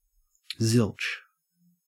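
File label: "zilch", Australian English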